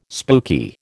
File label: "spooky", American